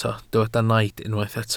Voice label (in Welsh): "naid" Welsh